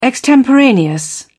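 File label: "extemporaneous", British English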